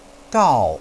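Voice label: "gao", Mandarin Chinese, fourth tone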